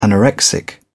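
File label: "anorexic", British English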